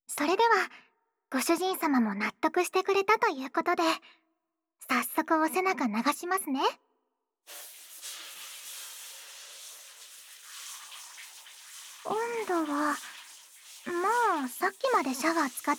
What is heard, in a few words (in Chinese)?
我来为您搓背主人, ASMR